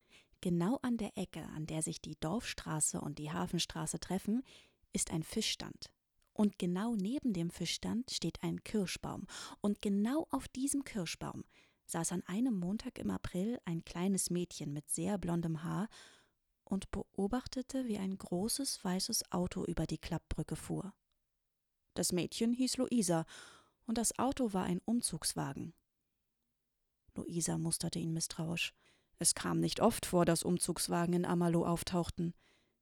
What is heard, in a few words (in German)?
Kinderhoerbuch erzaehlend